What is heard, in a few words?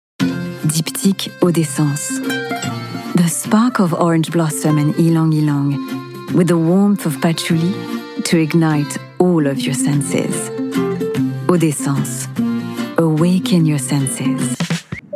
English French Accent